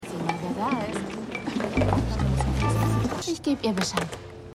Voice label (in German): Empfangsdame; The Lincoln Lawyer; Stimmproben; USA